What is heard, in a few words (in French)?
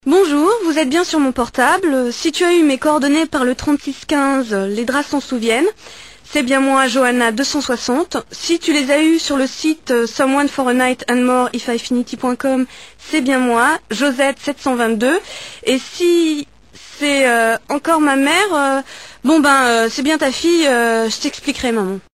Femme Annonce